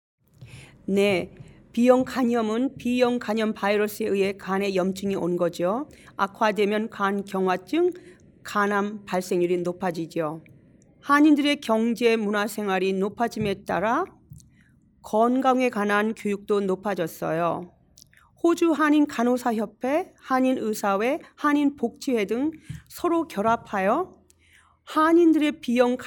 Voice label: Korean; hepatitis; AskHepatitisCampaign